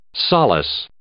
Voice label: "solace", English